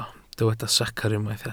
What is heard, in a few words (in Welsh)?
"secr" Welsh